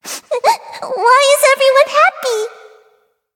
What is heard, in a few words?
victory, en; Cookie Run